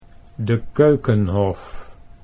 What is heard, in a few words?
"de Keukenhof", Dutch